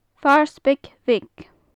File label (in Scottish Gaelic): "farspag-bheag", gaelic; Faclan Nàdair